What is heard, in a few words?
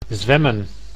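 "zwemmen", Dutch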